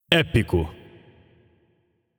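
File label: Announcer Lobby Card Epic, Brazilian Portuguese; Paladins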